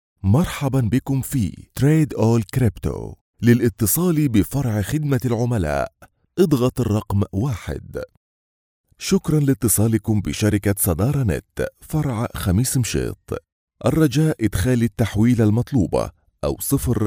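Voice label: arabic interactive voice response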